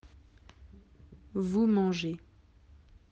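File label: "manger", French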